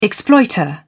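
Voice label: "exploiter", English, British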